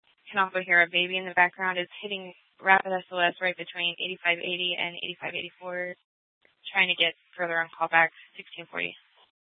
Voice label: Scottsdale PD Sth Dispatch, scanner

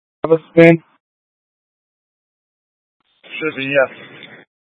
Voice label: Mutual Aid-Intersystem Interop B, scanner

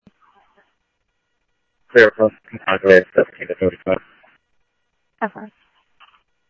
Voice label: Tempe Police, scanner audio; TEMPE PD A04

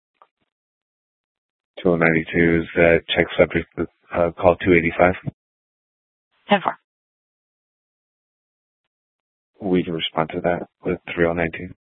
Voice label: Dispatch; Scottsdale

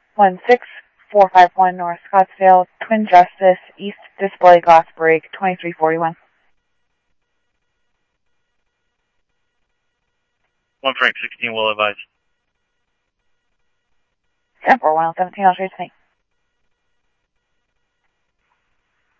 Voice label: Police, scanner audio

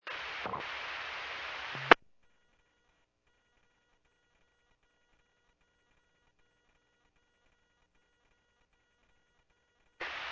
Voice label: Medevac, scanner; NativeAirAmb

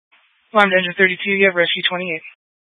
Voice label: Fire Dispatch; C- Chandler

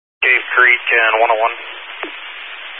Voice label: Highway Patrol, scanner; DPS

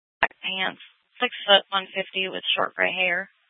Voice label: Mesa PD Central Dispatch, Scanner; TOPAZ Regional Wrlss Cooperative (TRWC) - Mesa Simulcast

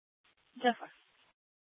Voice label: Mesa PD Central Dispatch, scanner; Mesa Simulcast

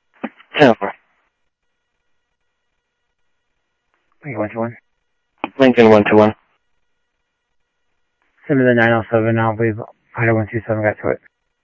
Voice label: US Government CBP Federal Freq, scanner; 7 US Government; CBP FLO

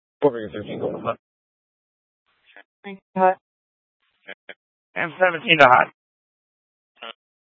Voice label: Mesa PD Dispatch

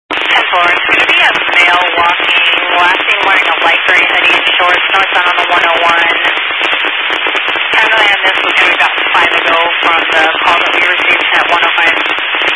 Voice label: Highway Patrol, Phoenix Metro East Area, scanner

